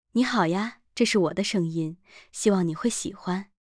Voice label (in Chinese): 成熟知性, voice samples